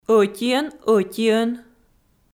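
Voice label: "ӧтиӧн-ӧтиӧн", Komi, female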